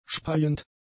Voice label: "speiend", german; adjectives

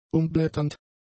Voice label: "umblätternd", German; adjectives

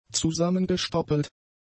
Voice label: "zusammengestoppelt", German; adjectives

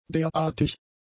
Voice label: "derartig", german; adjectives

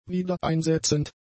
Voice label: "wiedereinsetzend", German; adjectives

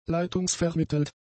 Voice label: "leitungsvermittelt", German; adjectives

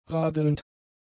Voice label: "radelnd", German; adjectives

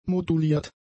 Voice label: "moduliert", German; adjectives

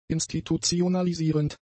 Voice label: "institutionalisierend", German; adjectives

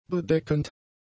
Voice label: "bedeckend", German; adjectives

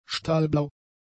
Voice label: "stahlblau", German; adjectives

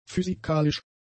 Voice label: "physikalisch", German; adjectives

